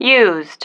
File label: "used", female